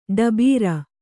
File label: "ಟಬರು", Kannada